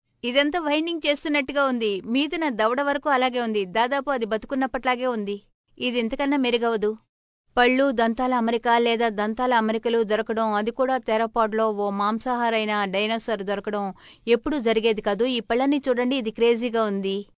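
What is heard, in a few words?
telugu female voice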